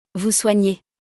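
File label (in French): "vous soignez", French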